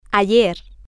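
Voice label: "ayer", Spanish, female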